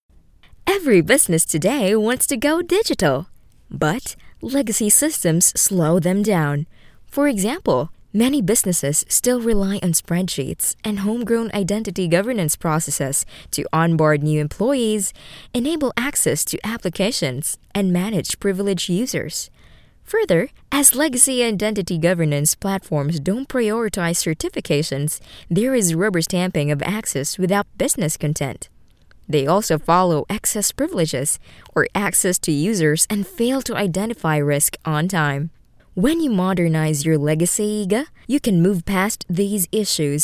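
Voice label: corporate english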